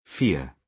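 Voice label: "fear", British English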